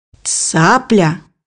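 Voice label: "heron", Russian; bukvar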